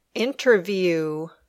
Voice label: "interview", English